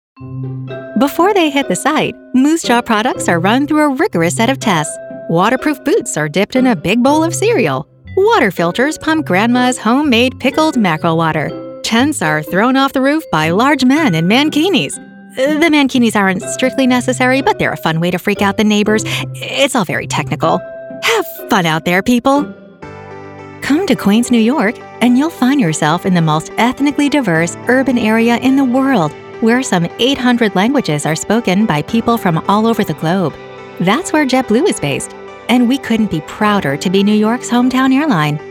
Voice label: Corporate